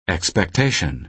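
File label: "expectation", American English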